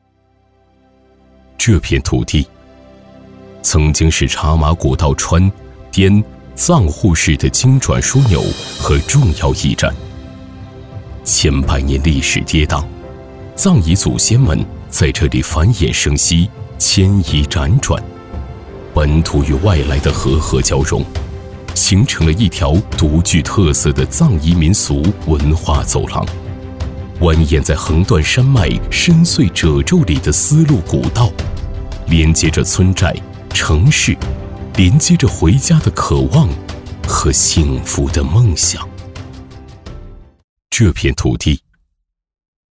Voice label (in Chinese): 男, 纪录片, 深邃纪录片